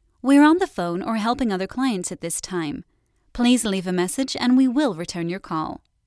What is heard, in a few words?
Unavailable Announcement